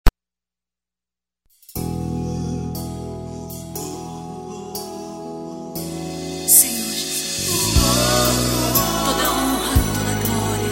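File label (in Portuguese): Evangélica Mensagem pra Mae, male, telemensagens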